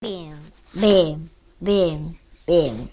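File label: "beam", spoken word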